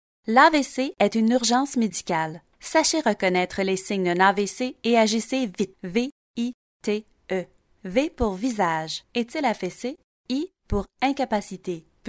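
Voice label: French Canada, female, voice over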